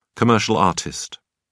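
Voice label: "commercial artist", English, British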